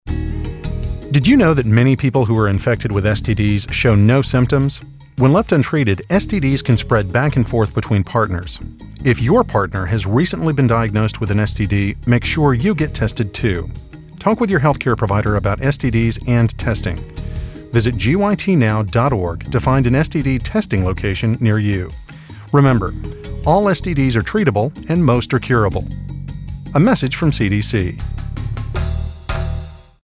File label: PSA Male Anncr